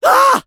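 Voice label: positive vocalization, French; Medic; Robot Medic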